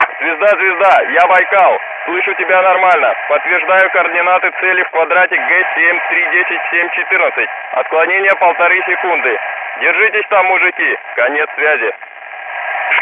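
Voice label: voice soviet infantry; Red Orchestra 41-45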